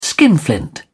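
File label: "skinflint", British English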